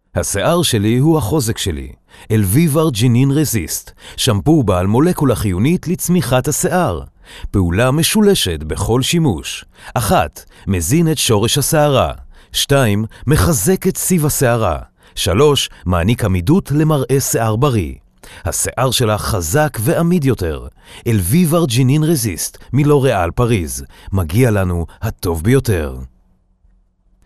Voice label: soft voice

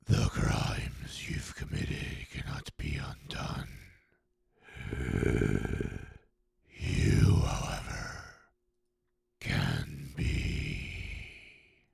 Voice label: Eldritch Horror Monster Demon

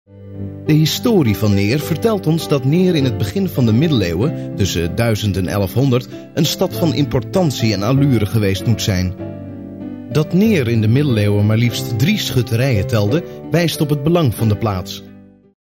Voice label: Dutch documentary

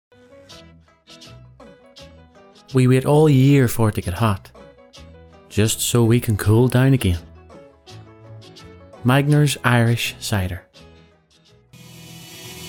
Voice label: Commercial voice

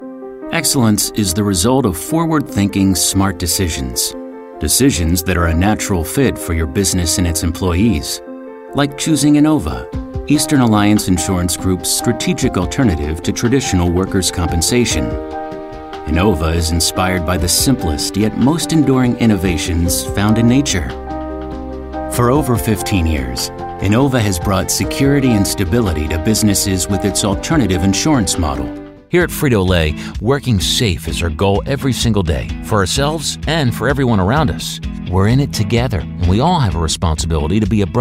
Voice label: Corporate Narration

